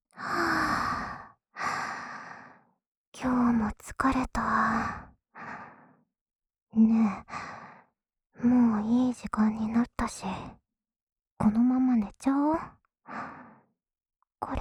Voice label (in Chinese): 安眠用 陪睡循环(左耳)